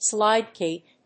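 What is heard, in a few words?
"SLIDE GATE", English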